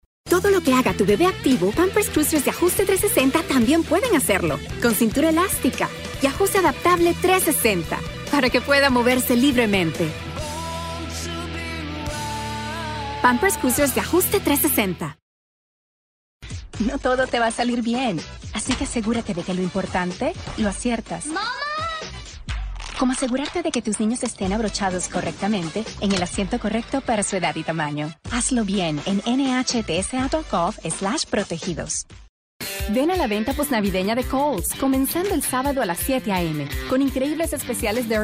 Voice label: Spanish